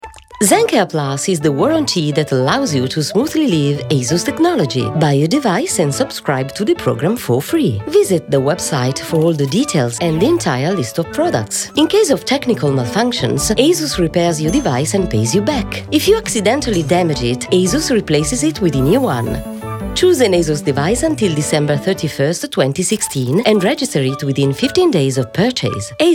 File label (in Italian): Inglese; Asus